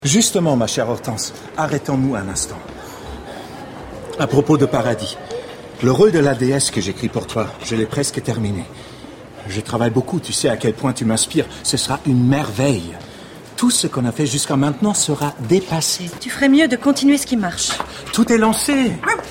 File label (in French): voices fiction radio francais; offenbach